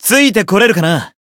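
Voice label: Voice encount, Japanese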